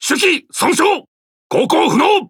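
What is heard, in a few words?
Japanese Speech Defective Modules Engine Damaged; World Of Warships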